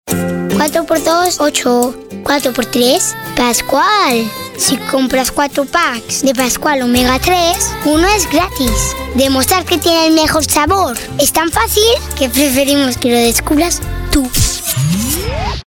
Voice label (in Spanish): infantiles